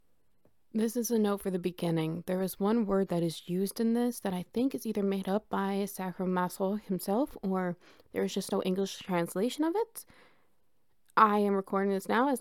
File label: no ambience